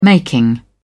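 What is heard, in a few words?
"making", British English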